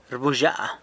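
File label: "rbuzhya", San Lucas Quiaviní Zapotec; shouts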